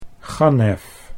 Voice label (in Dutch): "gannef", Dutch